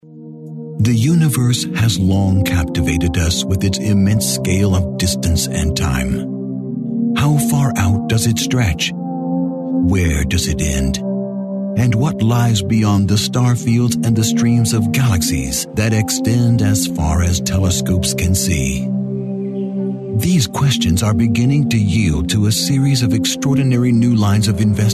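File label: US English, male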